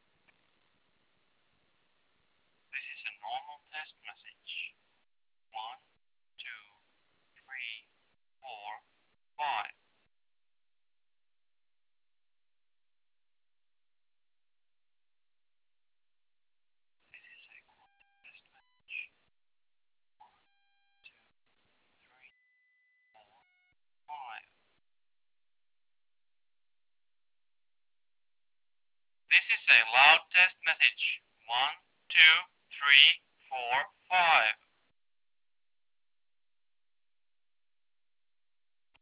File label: quiet room; openmoko; call-quality